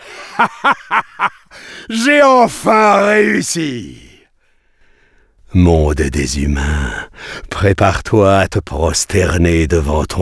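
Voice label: outro fail French; arthur and the revenge of maltazard; Wii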